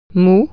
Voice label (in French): "soft", French